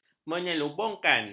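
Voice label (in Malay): "menyelubungkan", Malay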